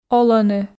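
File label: "олӧны", Komi, female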